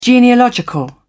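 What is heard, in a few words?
"genealogical", British English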